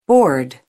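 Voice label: "board", English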